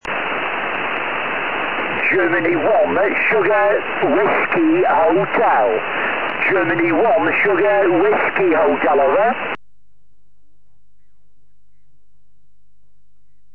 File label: 4m ssb